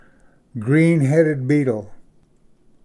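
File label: "green headed beetle", English; ᎠᏂᏤᎢ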